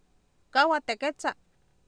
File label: "kAawatekEtsa", Oapan, female; Oapan minimal pairs 2000